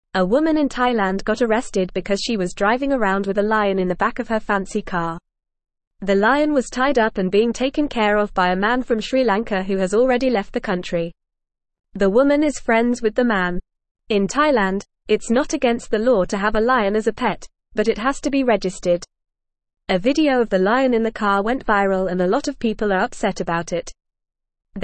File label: English FAST Reading; English Newsroom; Woman Arrested After Viral Video of Lion in Bentley